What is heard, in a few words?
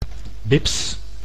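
"bips", Dutch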